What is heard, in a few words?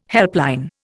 "helpline", Indian